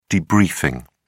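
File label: "debriefing", British English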